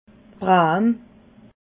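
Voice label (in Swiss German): "bram", Alsatian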